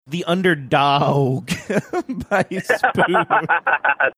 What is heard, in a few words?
saying exaggerated dog